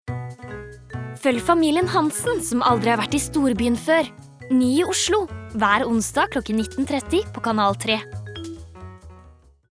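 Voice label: Norwegian promo, female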